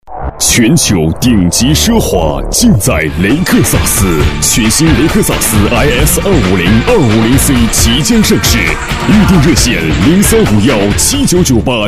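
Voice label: boy car, advertisement, Chinese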